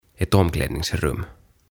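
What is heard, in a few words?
"Ett Omklädningsrum" Swedish; Pukuhuone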